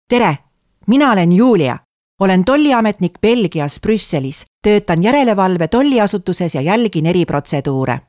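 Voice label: Estonian, female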